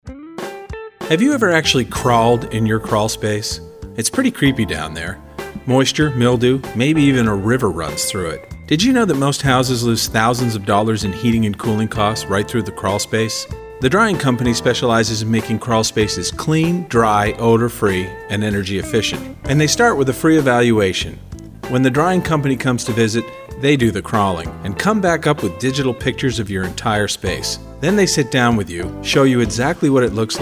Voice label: radio ad; drying company